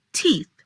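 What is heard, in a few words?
"Teeth", pronunciation; body parts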